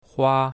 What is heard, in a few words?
"hua1", chinese, mandarin